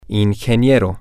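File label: engineer, spanish, male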